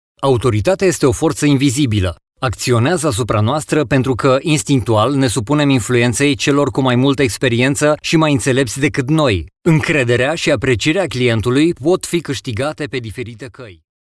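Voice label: Romanian, male, narration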